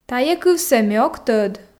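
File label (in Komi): "тайӧ кывсӧ ме ог тӧд", Komi, female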